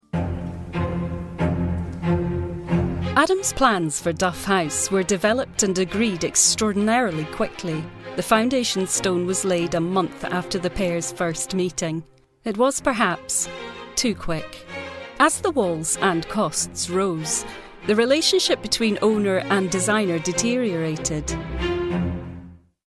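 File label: British English scottish narrative documentary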